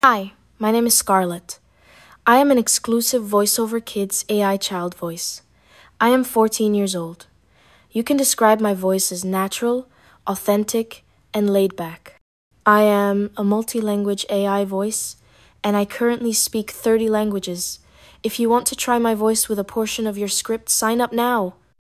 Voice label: girl14; voiceoverkids